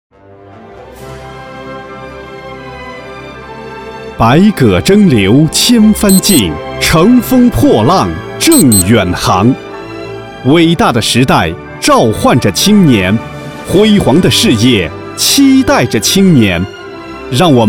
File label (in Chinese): C男 专题片